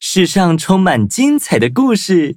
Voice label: upgrade, zh-hant; cookie run

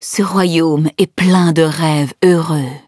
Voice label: level up, fr

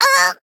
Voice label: hit, de; cookie run

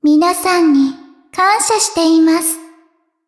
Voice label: levelup ja

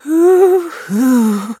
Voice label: hit, en; Cookie Run

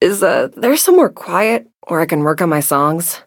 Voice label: touch, en; Cookie Run

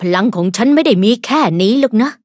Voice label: level up, th; cookierunwiki

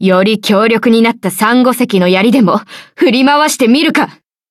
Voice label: upgrade, ja; Cookie Run

